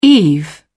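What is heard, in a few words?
"eve", British English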